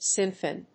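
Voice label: "synthon", English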